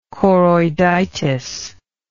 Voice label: "choroiditis", English